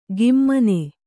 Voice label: "ಗಿಮ್ಮನೆ", Kannada

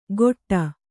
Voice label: "ಗೊಟ್ಟ", Kannada

